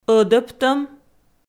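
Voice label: "ӧдӧбтӧм", Komi, female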